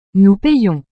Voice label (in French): "nous payons", frances